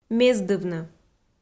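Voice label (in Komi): "мездывны", Komi, female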